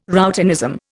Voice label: "routinism", Indian